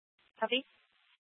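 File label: police dispatch, scanner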